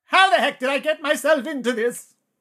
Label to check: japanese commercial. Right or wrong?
Wrong